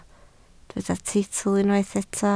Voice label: "teitl", Welsh